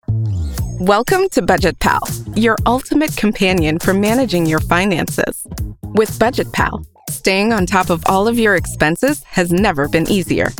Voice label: English Narration